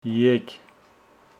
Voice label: Homshetsi three